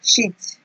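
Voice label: "shit", Nigerian Pidgin, female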